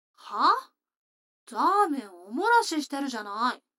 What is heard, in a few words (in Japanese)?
女の子 台詞, ASMR